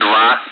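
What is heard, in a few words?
Speech, Russian, air traffic control; Digits; DCS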